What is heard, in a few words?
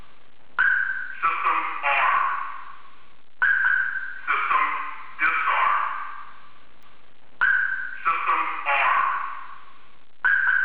siren voice